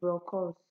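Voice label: "brokos", Nigerian Pidgin, female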